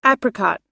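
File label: "apricot", American English; apricot /ǽprikɑ:t; アンズの木、アンズ、アンズ色、黄赤色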